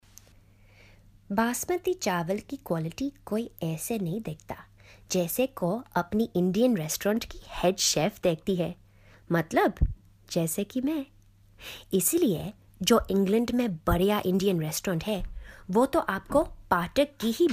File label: commercial Hindi; Patak